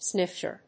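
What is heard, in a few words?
"snifter", English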